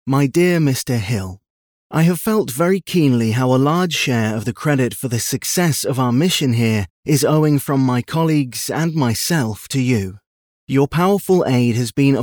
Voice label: Reading